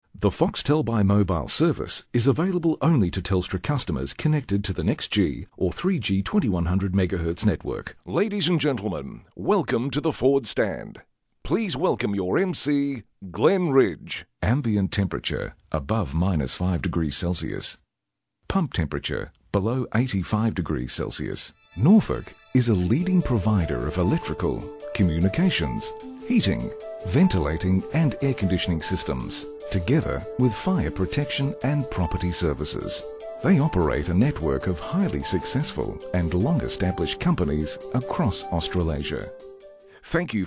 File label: eLearning